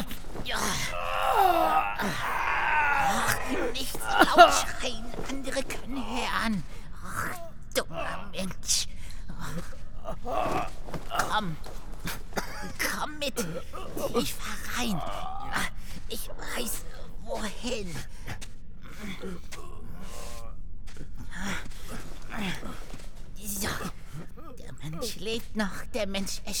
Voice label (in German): Hörspiel Goblin in der Höhle Charge Kreatur